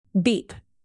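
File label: "beep", English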